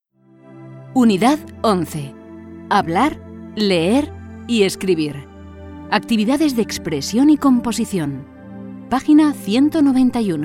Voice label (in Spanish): 4 voces lectura